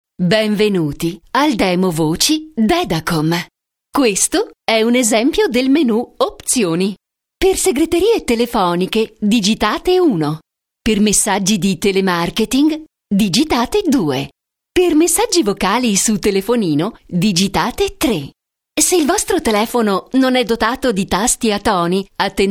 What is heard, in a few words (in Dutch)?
Voice over Italiaans vrouw